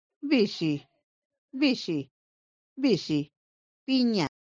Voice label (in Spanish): Vixi pina, mixteco; vocabulario palabras en mixteco